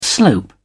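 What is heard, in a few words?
"slope", British English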